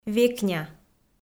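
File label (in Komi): "векня", Komi, female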